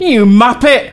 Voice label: Taunt, male; svencoop

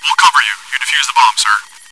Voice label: chitchat; svencoop

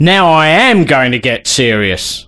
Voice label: Cheer, voice commands male; svencoop

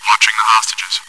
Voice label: chitchat, bot, voice commands; svencoop

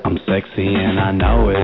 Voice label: sexy; svencoop

